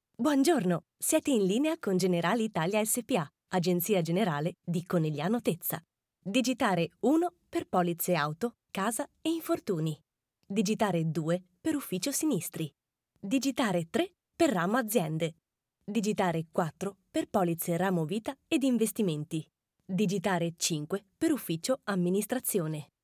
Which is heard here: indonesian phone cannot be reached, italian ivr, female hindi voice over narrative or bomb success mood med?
italian ivr